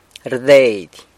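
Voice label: "rdeidy" gives (something) to (someone), San Lucas Quiaviní Zapotec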